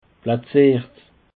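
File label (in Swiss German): "plaziert", Bas Rhin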